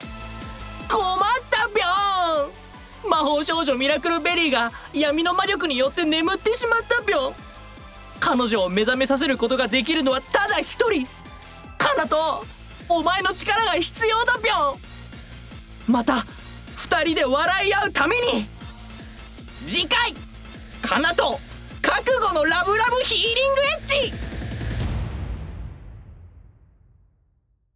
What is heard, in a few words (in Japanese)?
次回予告 ボイス; ラブラブヒーリングエッチで恋人を救います〜闇魔法を浴びた魔法少女を目覚めさせるために好き好き快楽エッチ〜